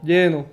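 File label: "lleno", Spanish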